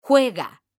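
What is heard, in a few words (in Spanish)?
"JUEGA", female; mekanta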